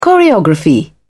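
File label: "choreography", British English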